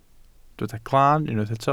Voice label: "glaan", welsh